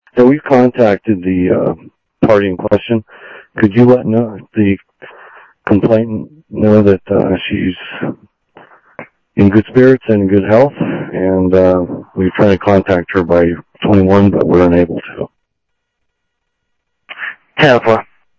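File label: Sheriff East County, scanner audio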